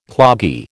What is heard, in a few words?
"cloggy", American